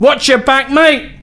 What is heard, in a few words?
Look Out, male; svencoop